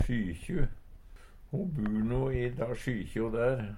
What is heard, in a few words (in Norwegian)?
"sjykju", Numedalsmål; Substantiv hokjønn; Ho bur no i da sjykjo der.